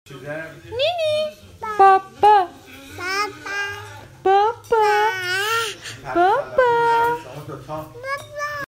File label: baby saying daddy